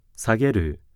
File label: male japanese